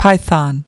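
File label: "python", US English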